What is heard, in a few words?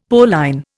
"Pauline", Indian; Dictionary